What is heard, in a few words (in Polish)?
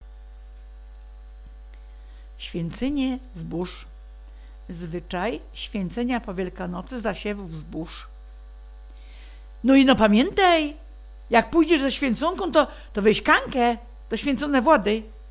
swiyncynie zboz, gwarowy